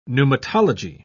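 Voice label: "pneumatology", British English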